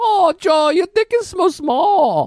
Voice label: voice, asian voices